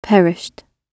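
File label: "perished", British English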